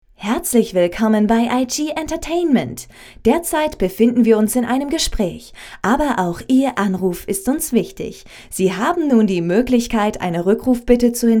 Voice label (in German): Anrufbeantworter